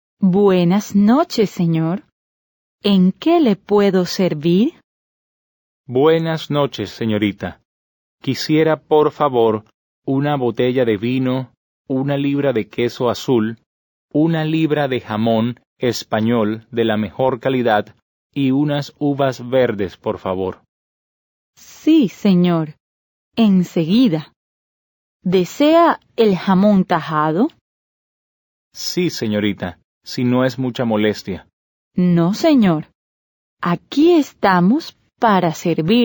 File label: Dialogue, Spanish